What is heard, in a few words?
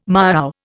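"miaow", English, Indian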